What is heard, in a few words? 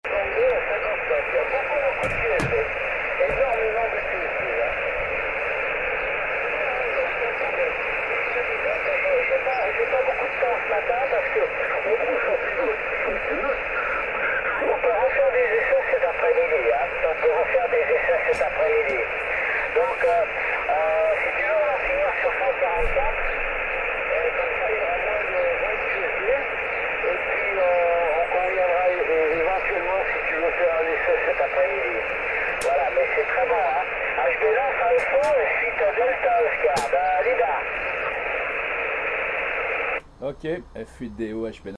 qso 10ghz; Mont Blanc